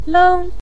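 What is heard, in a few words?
"leng" neutral tone, Mandarin Chinese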